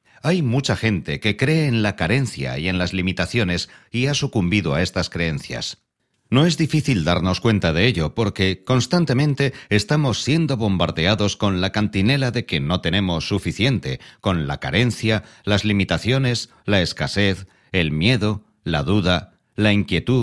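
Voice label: Spanish, Spain, interview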